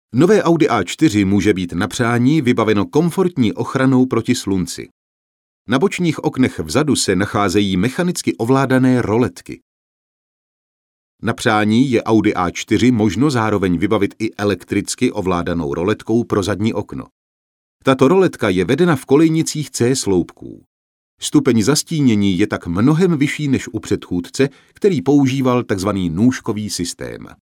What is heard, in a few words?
male Czech IVR